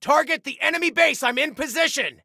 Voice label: Target Base, Soldier Male

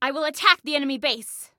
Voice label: Self Attack Base, Female; Female 02

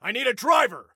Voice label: Need Driver, Soldier Male

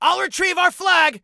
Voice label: Flag I Retrieve, Soldier Male; Soldier Male 01

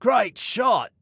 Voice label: Great Shot, Male

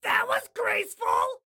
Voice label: Taunt Sarcasm, Excited Guy

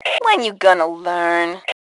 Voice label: Taunt Learn, Female; T2 Female 01

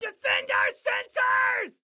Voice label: Defend Sensors, Excited Guy

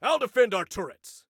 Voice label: Self Defend Turrets, Soldier Male; Soldier Male 02

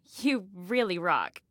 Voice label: Compliment "You Rock", Female; Female 02

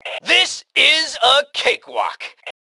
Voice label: Taunt Brag, Male; T2 Male 03

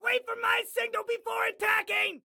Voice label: Attack Wait, Excited Guy

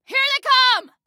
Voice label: Warn Enemies, Female

